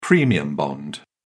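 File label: "premium bond", British English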